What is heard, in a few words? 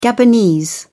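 "gabonese", British English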